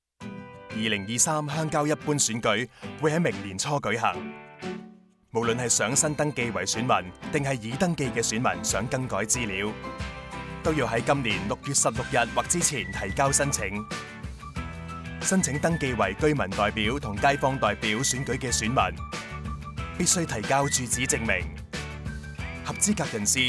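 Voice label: Cantonese radio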